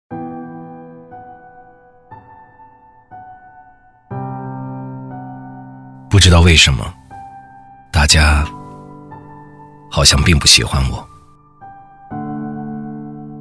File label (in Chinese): 男 旁白; 大家好像不喜欢我